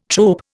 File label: "trope", Indian